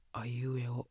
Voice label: Whispery Voice aiueo

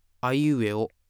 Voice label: Modal Voice aiueo

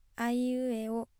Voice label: Modal Voice aiueo

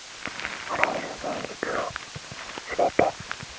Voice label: female talker

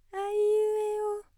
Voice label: Falsetto aiueo

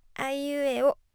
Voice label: Tense Voice aiueo

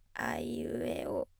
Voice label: Creak aiueo

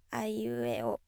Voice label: Creaky Voice aiueo

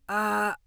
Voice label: Harsh Voice "a"

